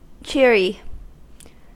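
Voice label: "cheery", American English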